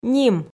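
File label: "ним", Komi, female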